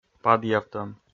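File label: "падъявтӧм", Komi, male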